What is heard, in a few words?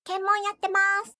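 "kenmon", Japanese; 検問やってま～す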